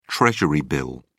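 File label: "treasury bill", British English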